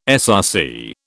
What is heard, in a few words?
"SRC", British